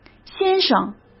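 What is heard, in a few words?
"先生", Mandarin; chinese flashcards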